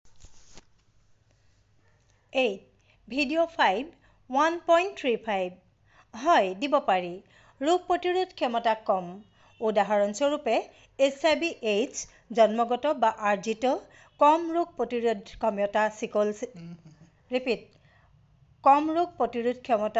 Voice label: Assamese F

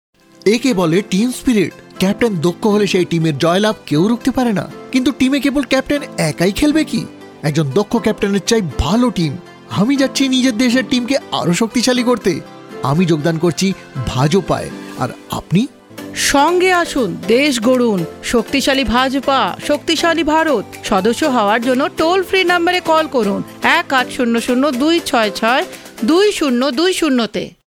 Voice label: BENGALI M

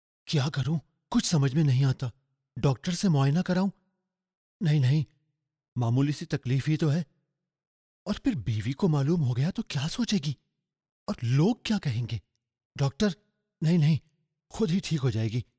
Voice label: Urdu male

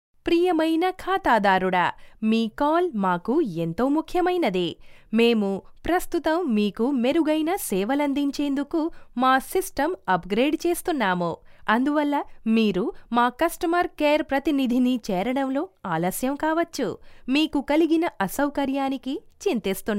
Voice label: TELUGU FEMALE

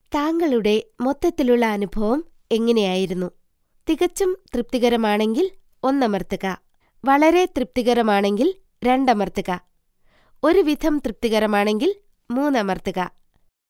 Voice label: Malayalam female

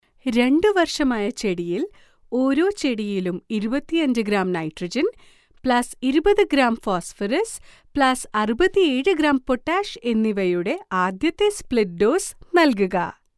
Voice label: Malayalam female

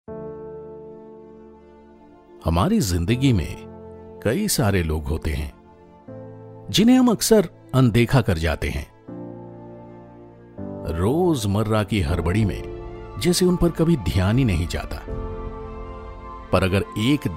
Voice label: Hindi VO Sample

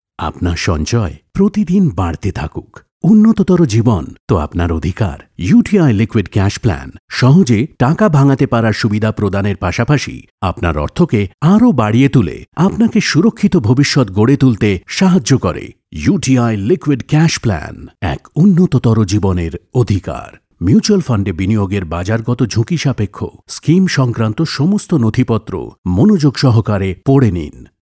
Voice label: Bangla

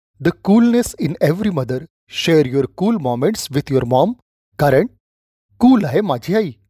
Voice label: MARATHI MALE

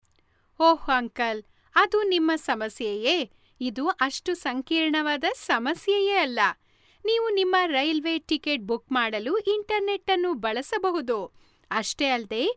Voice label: KANNADA FEMALE

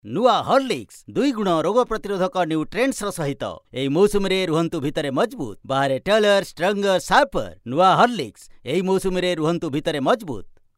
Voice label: ORIYA MALE

